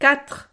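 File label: "quatre", French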